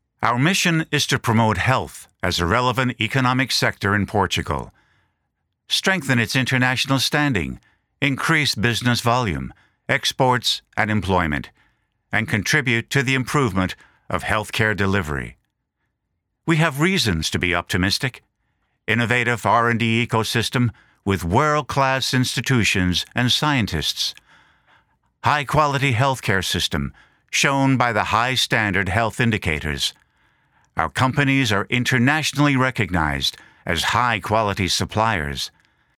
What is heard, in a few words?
Corporate Video